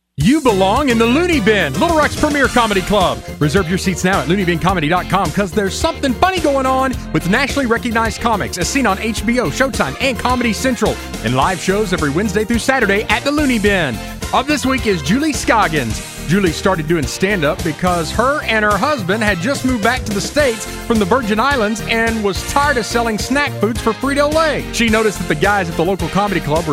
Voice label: radio commercial; loony bin little rock